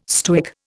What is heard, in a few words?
"Stoic", Indian